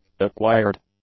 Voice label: "acquired", English; adjectives

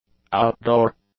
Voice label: "outdoor", English; adjectives

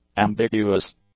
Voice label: "ambiguous", English; adjectives

